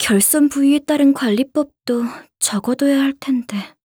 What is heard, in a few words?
Announcer Part Break; Yuri